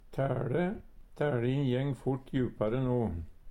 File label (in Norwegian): taele, Numedalsmål; Substantiv hankjønn; Vêr og føre